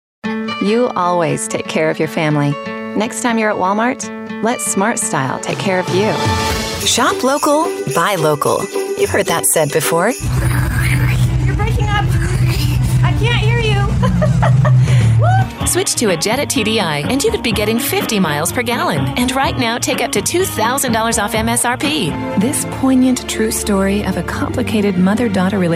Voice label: Commercial